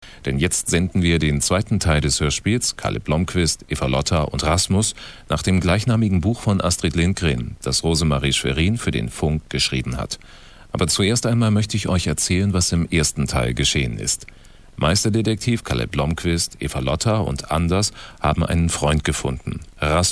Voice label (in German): Ansage; Astrid Lindgren; Kalle Blomquist, Eva-Lotta und Rasmus Teil 2